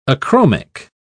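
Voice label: "achromic", British English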